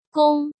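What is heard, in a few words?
"Gōng", Chinese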